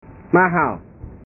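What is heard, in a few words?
"mahal", Indonesian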